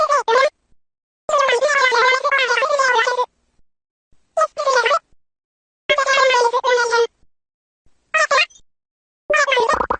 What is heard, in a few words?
talking animal; isabelle; animal crossing